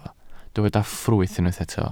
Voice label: "ffrwyth", Welsh